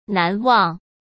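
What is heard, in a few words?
"难忘", Mandarin Chinese; chinese-flashcards; hsk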